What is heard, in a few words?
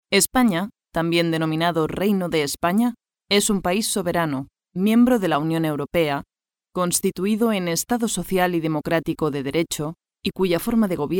female spanish castilian